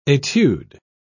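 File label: "etude", British English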